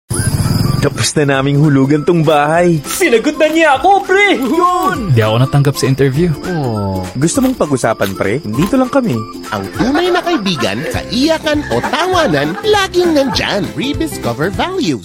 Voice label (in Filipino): Tagalog; REBISCO